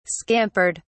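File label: "scampered", British English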